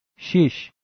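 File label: "shish", Persian, male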